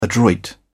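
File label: "adroit", British English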